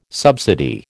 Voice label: "subsidy", American

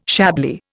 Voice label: "Chablis", Indian

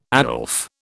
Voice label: "Aslef", British

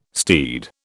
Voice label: "steed", British

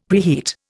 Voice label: "preheat", Indian; Dictionary

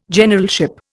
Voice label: "Generalship", Indian

